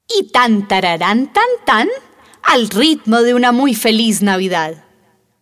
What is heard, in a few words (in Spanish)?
Narración; Tan Tararán Tan Tán